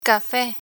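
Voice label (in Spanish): "cafe", Spanish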